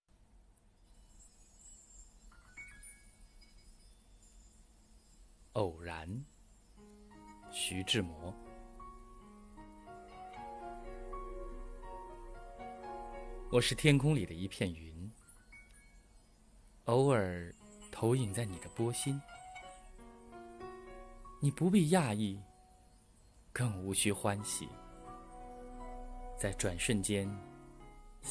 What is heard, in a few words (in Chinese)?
诗歌, 男; 偶然